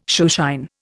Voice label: "shoeshine", Indian

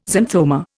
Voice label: "xanthoma", Indian

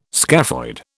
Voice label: "scaphoid", British

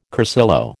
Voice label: "cursillo", American

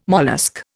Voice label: "mollusc", Indian

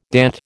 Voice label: "DAT", American

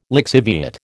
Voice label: "lixiviate", American

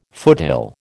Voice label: "foothill", American

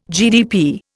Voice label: "GDP", Indian

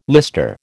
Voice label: "lister", American

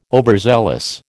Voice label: "overzealous", American

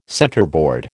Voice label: "centerboard", American

